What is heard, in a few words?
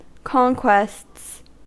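"conquests", American English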